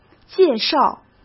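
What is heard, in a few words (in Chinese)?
"介绍", Mandarin Chinese; chinese-flashcards; hsk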